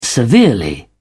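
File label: "severely", British English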